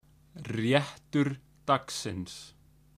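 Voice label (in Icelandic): "rétturdagsins", Icelandic; matarhefti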